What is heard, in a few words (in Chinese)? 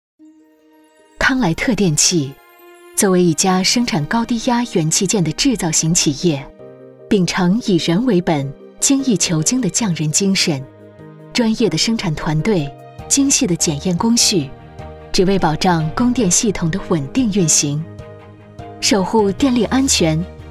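广告大气电器; 康莱特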